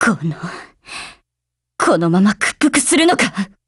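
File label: BREAK Japanese, Voice; GF Alarm; FP6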